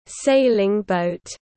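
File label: "Sailing boat", English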